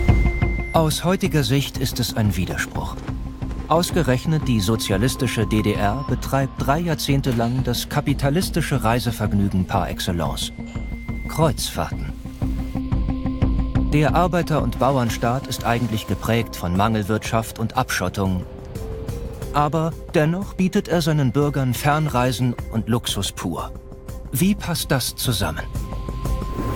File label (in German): Kreuzfahrten Doku